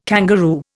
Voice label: "kangaroo", Indian